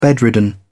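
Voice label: "bedridden", English, British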